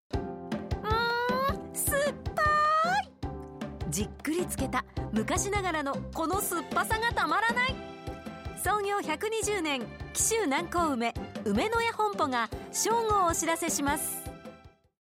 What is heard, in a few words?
cm female, ラジオ